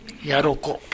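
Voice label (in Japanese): "yaroko", 南山形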